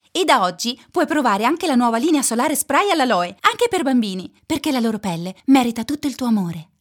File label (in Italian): AD; ITA; Linea Solare Spray